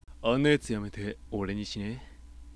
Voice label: sample sweet low, voice